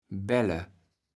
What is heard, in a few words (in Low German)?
"Belle", kaevels platt